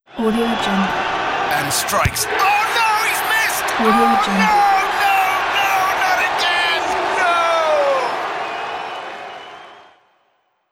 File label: penalty missed soccer match with commentary